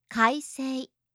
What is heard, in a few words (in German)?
"kaisei", japanische maedchennamen